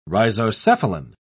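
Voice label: "rhizocephalan", British English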